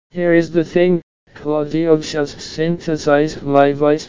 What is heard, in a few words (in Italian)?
Boss Voice, voce sintetizzata